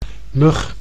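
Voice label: "mug", Dutch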